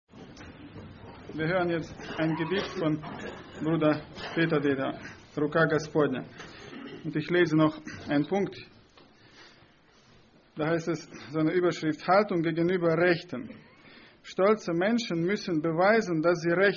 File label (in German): ansage; Братское (Германия, 04-25)